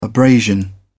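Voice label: "abrasion", English, British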